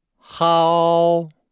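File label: "hao", Chinese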